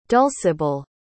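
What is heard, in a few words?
"dulcibelle", English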